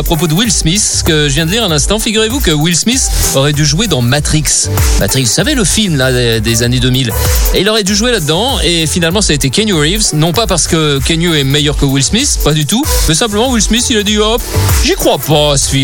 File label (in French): Generaliste Matin Anecdote